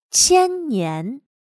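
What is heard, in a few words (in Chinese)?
"千年" Mandarin Chinese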